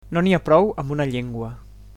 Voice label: "one language", Catalan

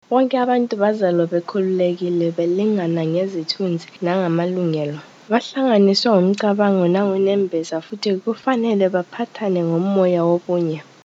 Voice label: udhr zulu

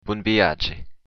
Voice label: bon voyage, occitan

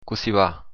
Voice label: how are you, occitan

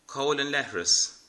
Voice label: "toilet", Irish

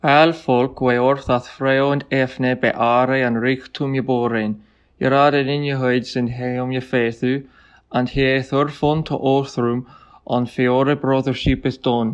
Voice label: udhr oldenglish

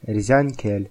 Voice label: erzya

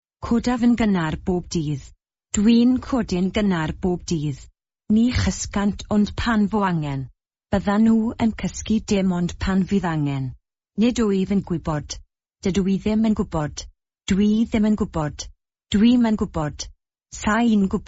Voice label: examples, Welsh

